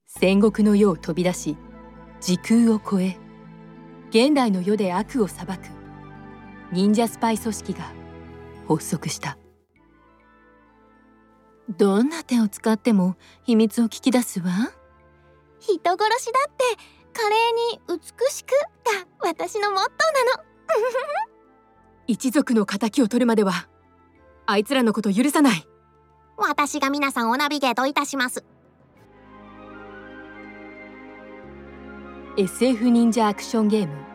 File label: japanese video games